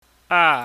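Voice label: "aa", Cantonese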